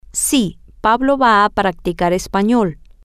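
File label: yes Pablo is going to practice Spanish, Spanish, female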